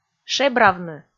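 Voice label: "шебравны", Komi, female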